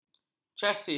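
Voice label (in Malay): "casis", Malay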